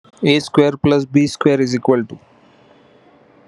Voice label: voice message